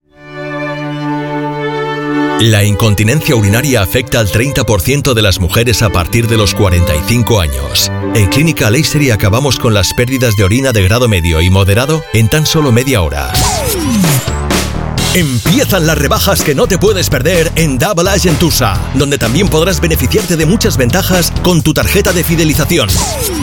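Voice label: male Castilian Spanish voice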